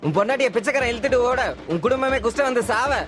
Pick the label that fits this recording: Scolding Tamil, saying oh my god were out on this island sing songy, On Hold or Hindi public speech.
Scolding Tamil